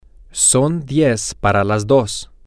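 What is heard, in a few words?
Spanish, male; its Ten To Two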